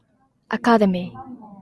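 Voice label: "academy", Japanese, female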